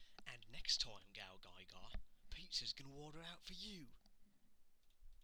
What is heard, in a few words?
Cockney Geeza Ordering Out Pizza